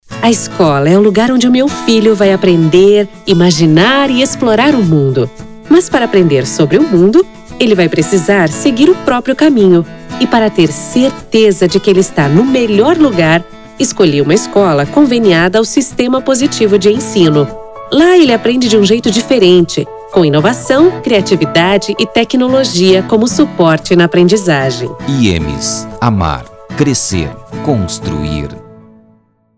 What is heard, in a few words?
Spot; IEMES